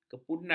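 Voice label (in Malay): "kepudang", Malay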